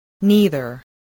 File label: "neither", voice